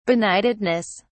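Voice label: "benightedness", English, British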